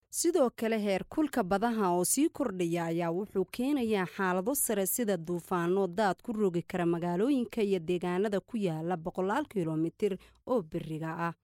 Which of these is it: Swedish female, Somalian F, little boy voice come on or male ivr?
Somalian F